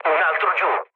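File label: Italian player killed enemy mood low, speech; War Thunder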